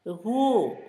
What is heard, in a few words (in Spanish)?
camote, Zapoteco, Asunción Ocotlan; Comestibles; INALI; Haspelmath